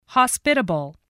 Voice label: "hospitable", voice spelling